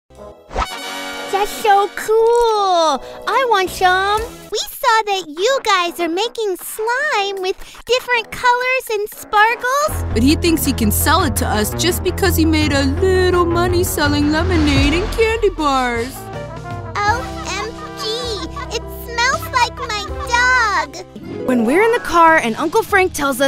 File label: Kids Voices